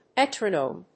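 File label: "metronome", English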